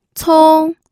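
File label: "cong", pinyin, first tone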